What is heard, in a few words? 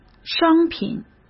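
"商品", Mandarin Chinese; chinese flashcards; hsk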